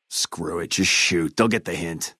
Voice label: male adult; FNV; leave water